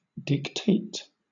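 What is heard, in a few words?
"dictate", British English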